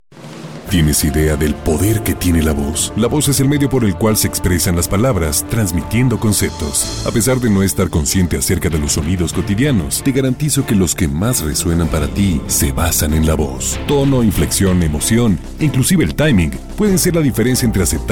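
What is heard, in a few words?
male, US Spanish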